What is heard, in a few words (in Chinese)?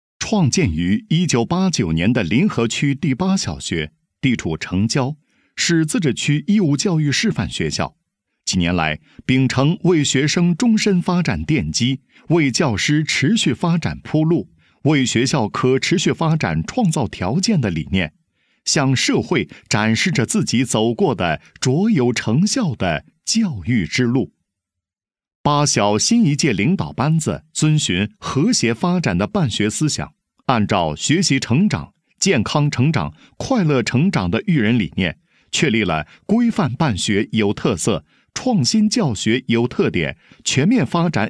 男 学校 宣传简介; 临河区第八小学